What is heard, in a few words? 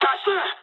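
Japanese bailout mood low; War Thunder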